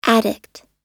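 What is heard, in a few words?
"addict", English; oxford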